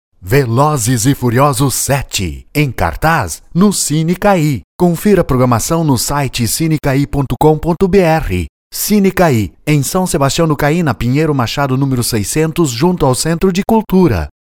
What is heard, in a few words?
portuguese brazilian movie trailers